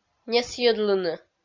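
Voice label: "несйӧдлыны", Komi, female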